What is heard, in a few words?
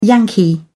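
"yankee", British English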